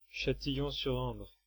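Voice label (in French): "Châtillon-sur-Indre", French, Paris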